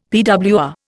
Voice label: "PWR", Indian; Dictionary